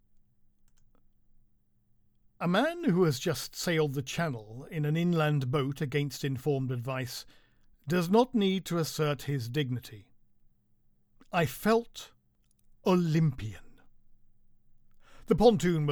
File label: Audiobook Sample